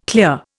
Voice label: "clear", Indian

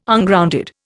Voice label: "Ungrounded", Indian

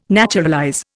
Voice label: "naturalize", Indian

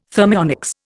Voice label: "thermionics", Indian

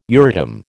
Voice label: "Euratom", American

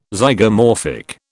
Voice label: "Zygomorphic", British

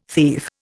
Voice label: "thief", Indian

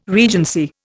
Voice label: "regency", Indian

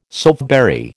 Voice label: "soapberry", American

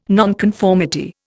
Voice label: "nonconformity", Indian

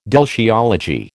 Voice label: "deltiology", American

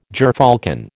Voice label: "gyrfalcon", American

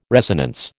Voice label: "resonance", American

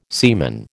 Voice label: "semen", American

